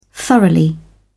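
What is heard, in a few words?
"thoroughly", British English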